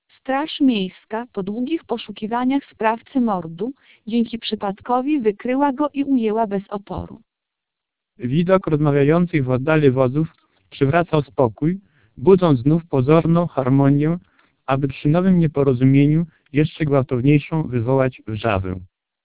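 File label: speech TWELP 2400 bps, Portuguese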